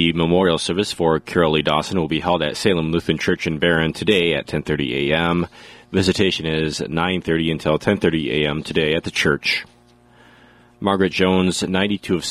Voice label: Funeral Announcements